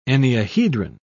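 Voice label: "enneahedron", British English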